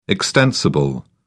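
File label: "extensible", British English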